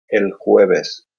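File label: "el jueves", Spanish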